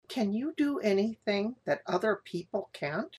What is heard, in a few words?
woman question